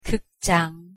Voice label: "극장" Korean pronunciation

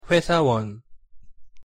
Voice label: pronunciation ko 회사원

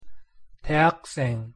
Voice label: "대학생", Korean

